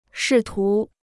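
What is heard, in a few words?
"试图", Chinese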